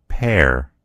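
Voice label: "pear", American English; gcompris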